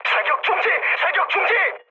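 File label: Korean player friendly fire mood med; speech; War Thunder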